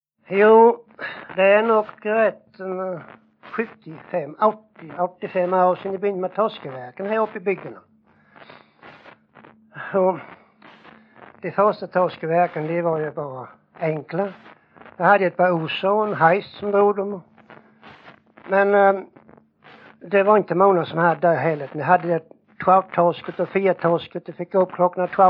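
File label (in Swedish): Jämshög Blekinge, dialekter; Folkminnen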